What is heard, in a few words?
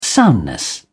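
"soundness", British English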